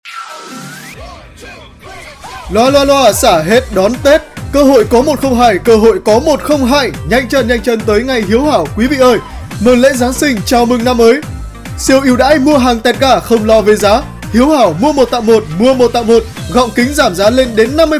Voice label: Thu am quang cao, Xa hang kinh mat; Hieu Hao

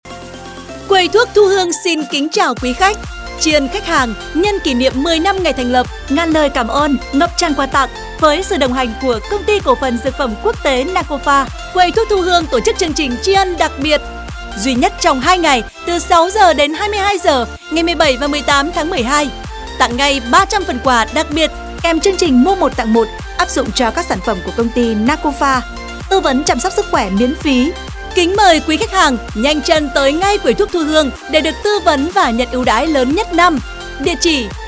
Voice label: Thu am quang cao; Quay thuoc Thu Huong 10 nam

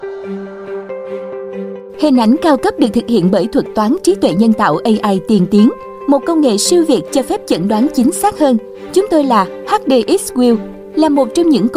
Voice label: Long tieng video doanh nghiep Nha khoa Giong Nu Mien Nam; HDX

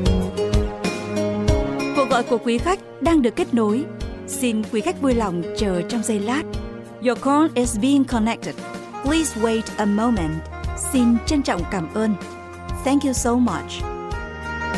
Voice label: Nhac Cho Doanh Nghiep Radio Ad; Cong Ty CP Quang Cao Radio Viet Nam

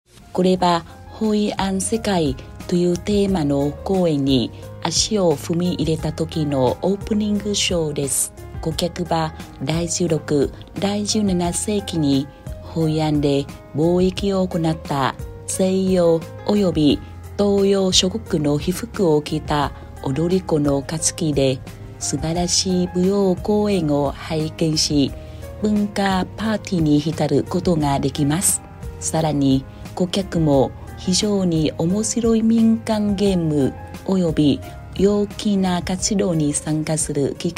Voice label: tieng Nhat

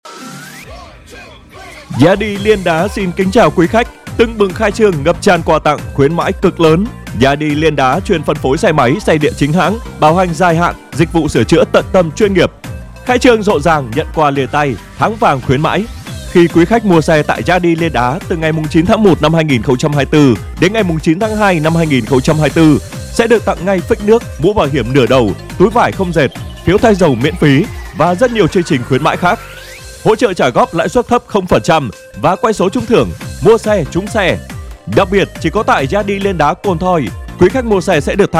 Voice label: Thu am quang cao nhac Tet; Yadae Lien Da

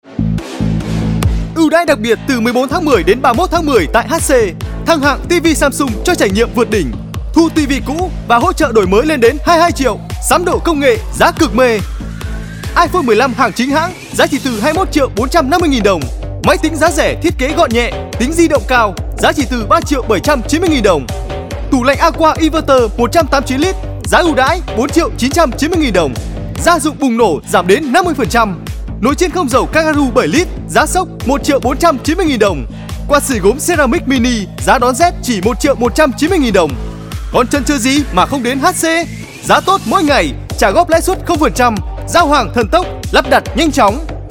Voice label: TRUNG TAM DIEN MAY, GIONG NAM MIEN BAC

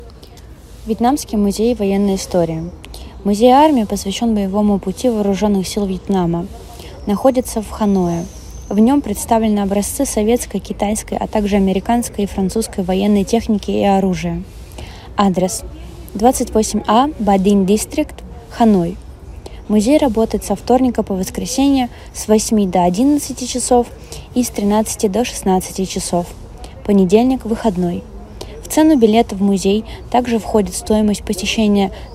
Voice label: Giong Doc Tieng Nga

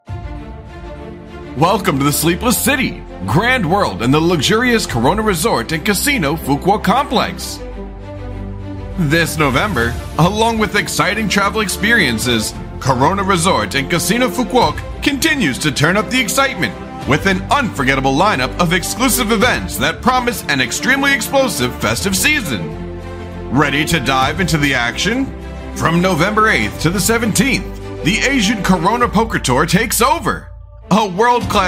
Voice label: Tieng Anh Ban Ngu; Corona Resort Phu Quoc; thu am da ngon ngu

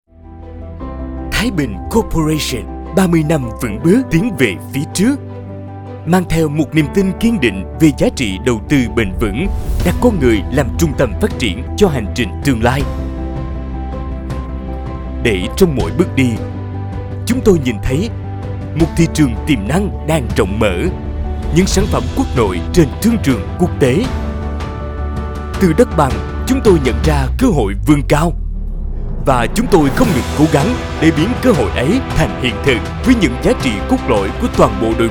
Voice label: GIONG NAM MIEN NAM; THAI BINH CORPORATION

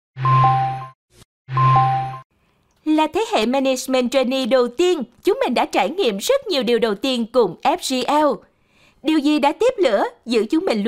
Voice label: Thu Am long tieng Video Gioi thieu cong ty may mac Giong Nu Mien Nam Cuc chat Radio Ad; FGL

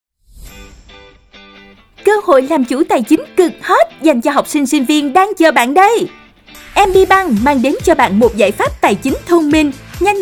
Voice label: GIAN HANG CUA GIONG NU MIEN NAM; MBBANK

